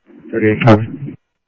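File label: Mesa Police Department simulcast, scanner audio; MESA PD 2 Centrl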